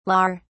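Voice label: "lawer", British English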